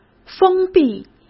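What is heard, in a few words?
"封闭", Mandarin Chinese; hsk; chinese-flashcards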